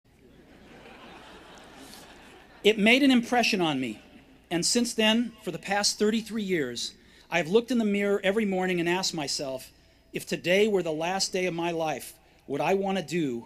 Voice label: Commencement Address; Steve Jobs; 2005; Stanford